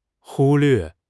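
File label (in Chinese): "忽略", Mandarin Chinese; HSK6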